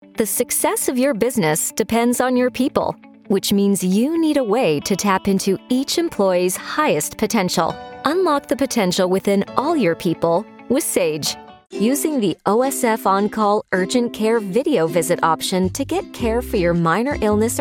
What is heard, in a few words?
Narration